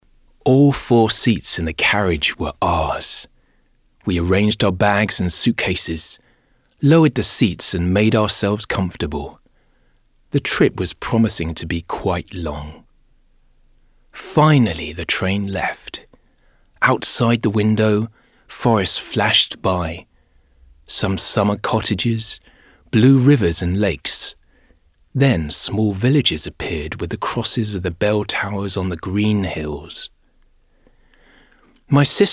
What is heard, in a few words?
ENG male, Casual